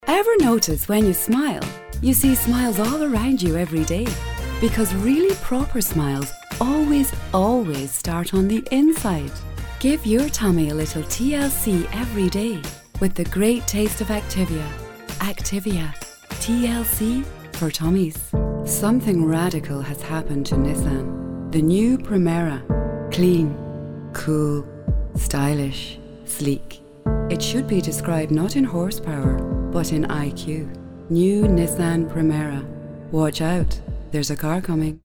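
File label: Scottish English, female, voice over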